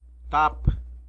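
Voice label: "lid", Tlacolula Zapotec; tap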